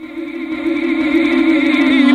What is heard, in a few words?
Pin Up Vox; Jungle; Vocals